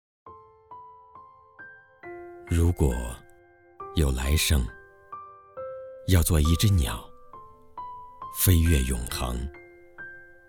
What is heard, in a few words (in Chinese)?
情感类混音